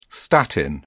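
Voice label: "statin", British English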